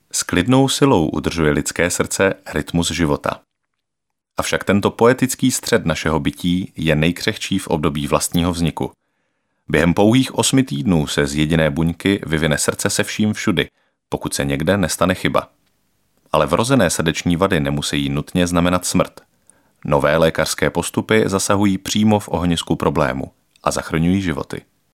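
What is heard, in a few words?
male Czech documentary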